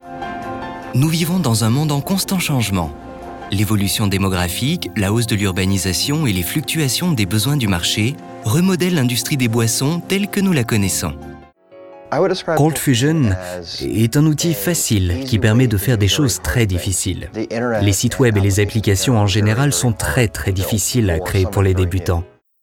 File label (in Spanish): Locutor francés CORPORATIVA